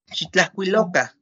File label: "xitlajkuiloka", Náhuatl; escriban; write